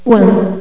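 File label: "one", spoken; q3rally; race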